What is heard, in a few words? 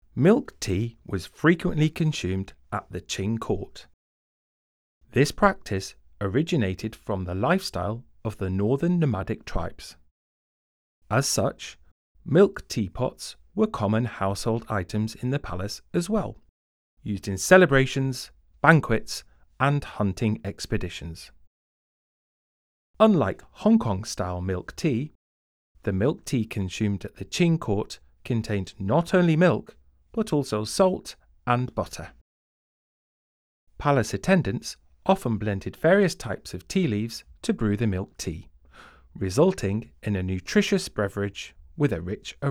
Eng VO; audio guide